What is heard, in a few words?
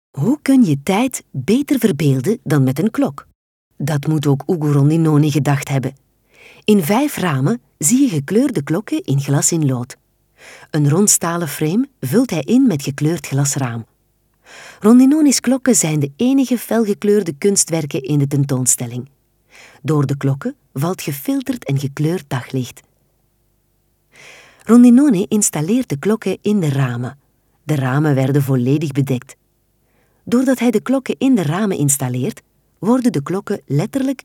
Audioguide, informatief; Informationen; Ugo Rondinone